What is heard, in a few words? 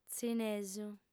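"tzinesu", lingua sarda, female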